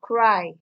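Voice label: "cry", Nigerian Pidgin, female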